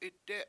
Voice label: "itdE7", Washo; world